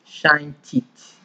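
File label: "shine teeth", Nigerian Pidgin, male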